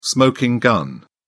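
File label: "smoking gun", British English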